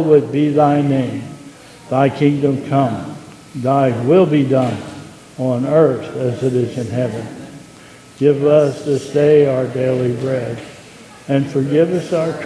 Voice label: Pastoral prayer and Lords Prayer